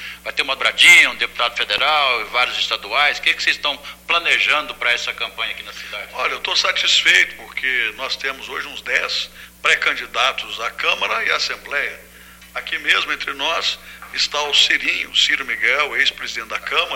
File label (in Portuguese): Entrevista a Radio Nova FM; Marconi Perillo